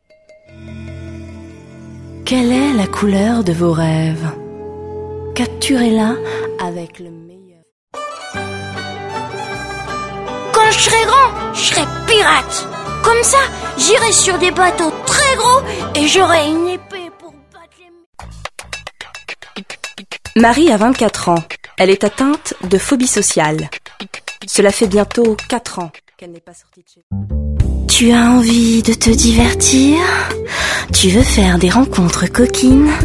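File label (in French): french female commercial; voice demos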